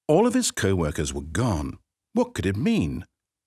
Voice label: office, narrator

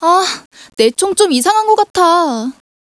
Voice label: angry; CSO

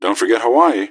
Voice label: answer, gasmask; scmod

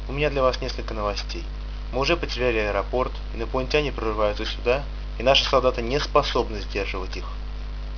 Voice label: news; gman3

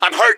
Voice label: im hurt, gasmask; scmod

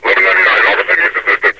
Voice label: 11-99 officer needs assistance, metrocop zombie; scmod

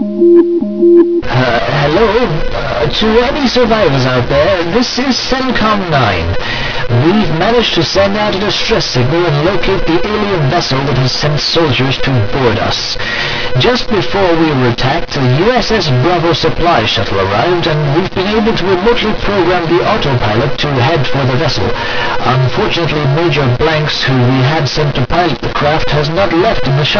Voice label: announce

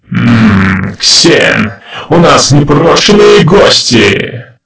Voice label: announcer

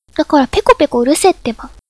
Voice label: chat

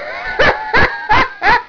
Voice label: "yes sir!", grunt

